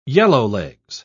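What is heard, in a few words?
"yellowlegs", British English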